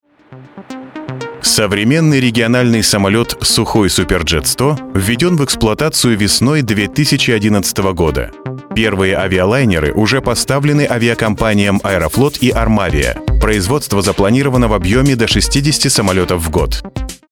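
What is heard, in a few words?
narration, Russian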